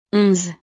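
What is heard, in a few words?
"onze", French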